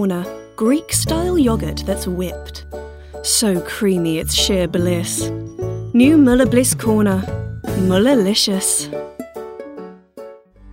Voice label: Commerical Reel